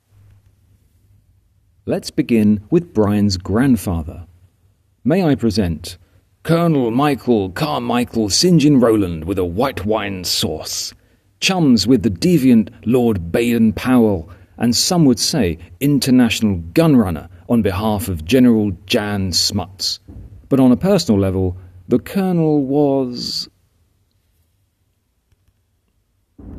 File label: voiceover